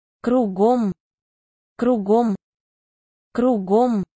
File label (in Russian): "Krugom", russian dog commands